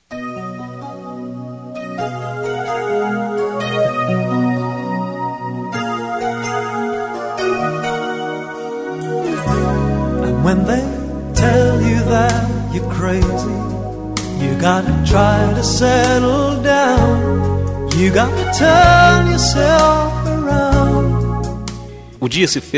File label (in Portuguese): ANIV FILHO PL MASC, telemensagem